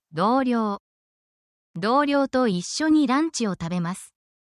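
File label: Japanese word, japanese phrases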